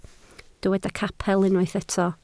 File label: "capel", Welsh